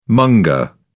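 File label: "monger", English, British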